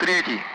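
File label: Speech Sound Russian Common Allied Flight; DCS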